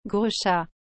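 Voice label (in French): "gros chat", French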